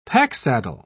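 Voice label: "packsaddle", British English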